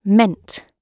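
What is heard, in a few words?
"meant", British English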